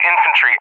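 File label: "infantry", English; USA; DCS; Allied Flight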